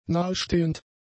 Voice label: "nahestehend", German; adjectives